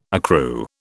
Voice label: "accrue", British